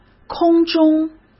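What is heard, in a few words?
"空中", Mandarin Chinese; hsk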